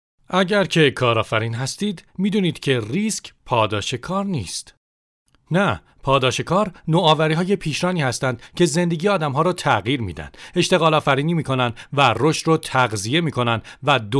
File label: Farsi Islamic Explainer, Male, Adult, Farsi (Persian)